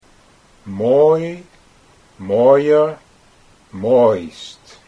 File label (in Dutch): mooi mooier mooist, Dutch